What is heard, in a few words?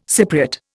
"Cypriot", Indian